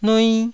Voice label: "nui1", taiwanese

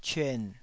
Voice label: "cyuan3", Taiwanese syllable

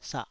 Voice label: "sah3", taiwanese syllable

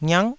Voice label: "ngiak9", taiwanese syllables

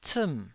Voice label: "ciim4", taiwanese

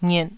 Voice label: "ngiet2", taiwanese syllables

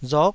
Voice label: "rok9", taiwanese syllable

